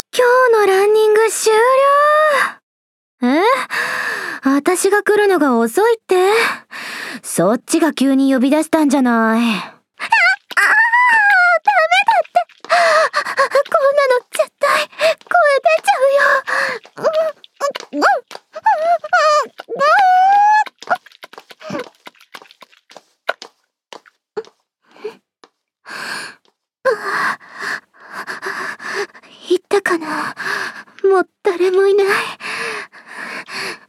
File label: voice drama